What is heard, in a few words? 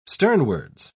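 "sternwards", British English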